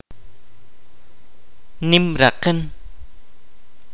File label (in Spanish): "largo", kaqchikel